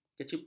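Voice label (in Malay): "kecipak", Malay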